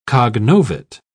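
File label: "cognovit", British English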